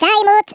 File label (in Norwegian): "fire", Norwegian; Speech; 11khz